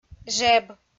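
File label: "жеб", Komi, female; audio dict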